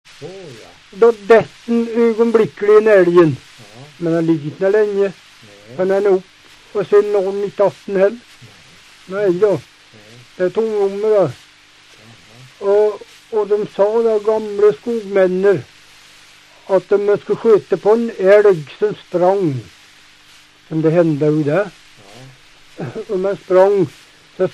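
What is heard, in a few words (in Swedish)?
Hede Härjedalen, dialekter, Svenska sagor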